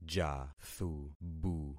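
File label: "jathubu", concatenated syllables; Affixing experiment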